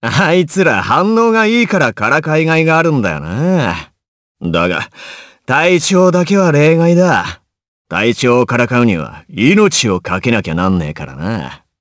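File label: voice dia, voices heroes, Japanese; Kibera; kings raid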